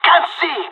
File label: blinded, pirate; Counter Strike Model Pack; CS:GO